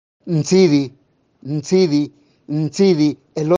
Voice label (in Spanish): elote, mixteco; vocabulario palabras en mixteco